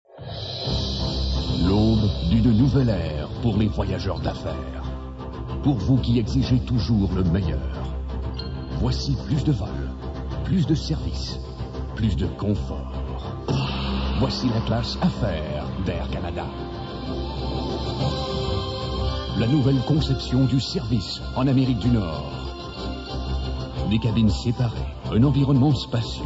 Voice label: french